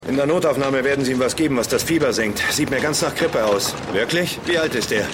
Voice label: Sanitaeter, Stimmproben

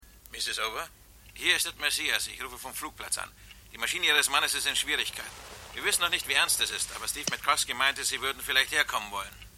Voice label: Telefon; AirPlane

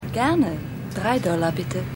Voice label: Flugbegleiterin; AusgerechnetAlaska

